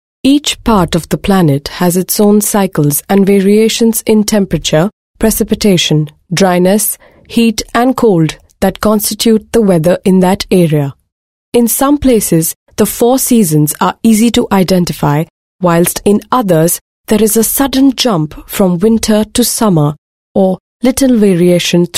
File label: female voice English